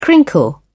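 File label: "crinkle", British English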